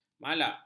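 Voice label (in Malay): "malak", Malay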